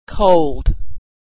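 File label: "cold", American English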